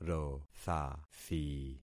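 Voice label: "rothathi", concatenated syllables; Affixing experiment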